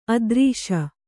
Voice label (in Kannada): "ಆದರ್ಶ", Kannada